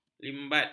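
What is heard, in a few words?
"limbat", Malay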